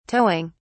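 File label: "towing", British English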